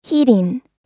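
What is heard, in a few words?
"heeding", American English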